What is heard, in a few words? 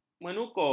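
"menukul", Malay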